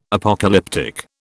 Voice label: "Apocalyptic", British